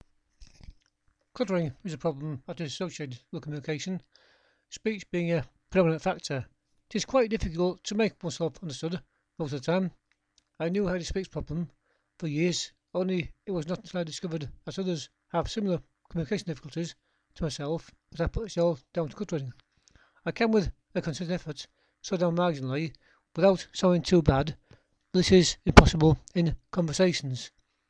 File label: Cluttered Speech